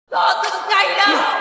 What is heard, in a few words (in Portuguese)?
todos cairao echo